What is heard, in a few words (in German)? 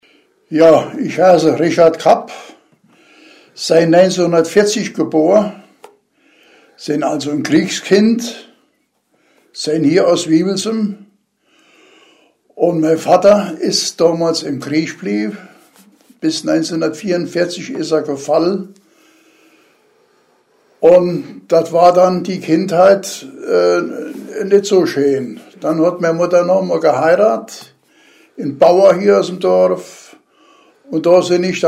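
vorstellung, O-Ton Hunsrück; Wiebelsheim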